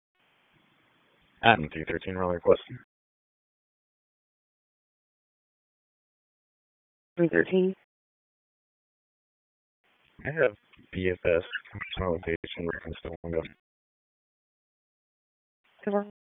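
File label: Police Dispatch; Raleigh; Northeast District; wake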